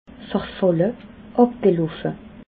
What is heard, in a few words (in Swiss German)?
"verfalle", alsace